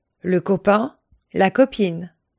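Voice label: "copain", French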